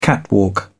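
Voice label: "catwalk", British English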